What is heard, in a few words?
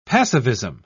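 "passivism", British English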